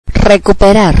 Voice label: "retrieve to", spanish computer